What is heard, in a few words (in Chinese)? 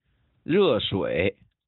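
"热水", Chinese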